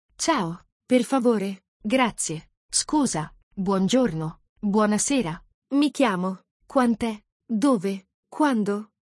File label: italian basic words